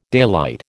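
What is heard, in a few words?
"daylight", American English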